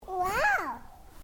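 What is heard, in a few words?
Male 2 year old Talk; Talking; Voice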